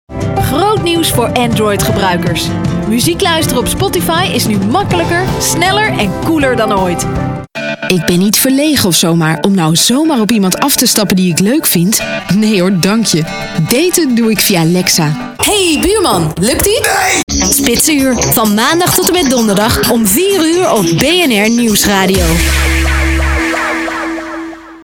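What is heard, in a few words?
Dutch energetic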